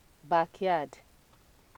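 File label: "backyard", Nigerian Pidgin, female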